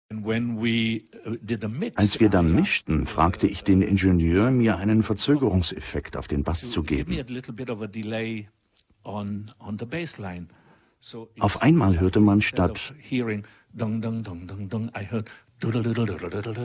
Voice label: Documentary Interviews General public, German voice-over, Factual and Precise; Giorgio Moroder